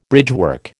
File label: "bridgework", American